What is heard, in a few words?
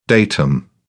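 "datum", English, British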